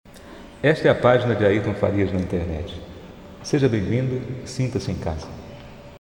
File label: Portugues